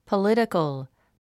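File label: "political", English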